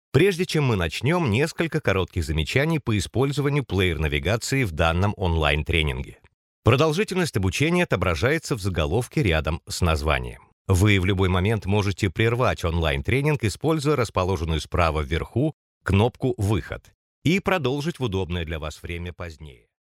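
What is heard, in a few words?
Russian, male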